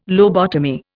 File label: "lobotomy", Indian